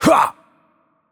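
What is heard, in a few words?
Attack Heavy, French; VO; bloom minigame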